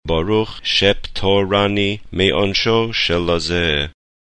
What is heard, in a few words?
ashkenazis